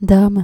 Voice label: "dame" dutch

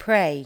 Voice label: "pray", L2 English

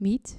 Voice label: "meat" dutch

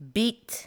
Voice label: "biet" english

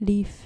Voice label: "leaf", dutch

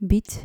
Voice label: "biet" dutch

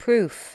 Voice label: "proof", L2 English

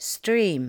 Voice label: "stream", L2 English

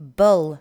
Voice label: "bull", english

